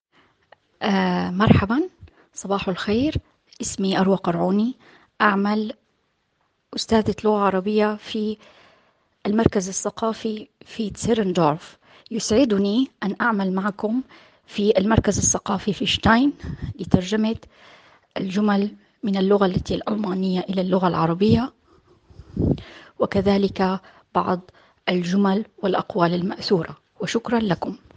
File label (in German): Arabisch alle Saetze aus Uebersetzungen